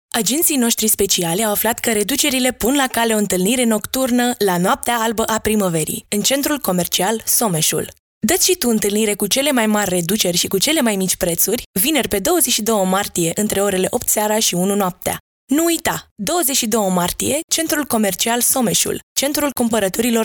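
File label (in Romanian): Romanian female Radio Commercial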